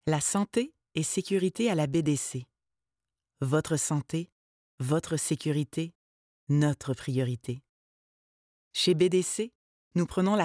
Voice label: french canadian female; dictor; dictor-sounds